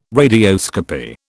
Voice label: "radioscopy", British

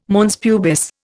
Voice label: "mons pubis", Indian

